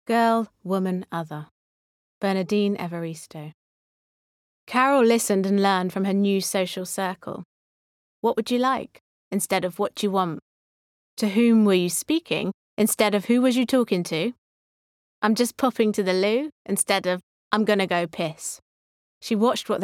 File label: Audiobook